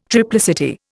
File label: "triplicity", Indian